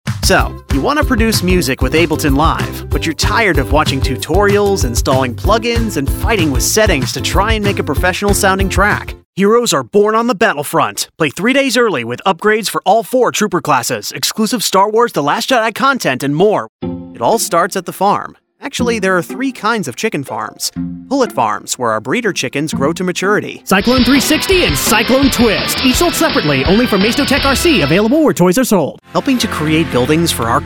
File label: Narration